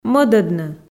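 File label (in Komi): "мӧдӧдны", Komi, female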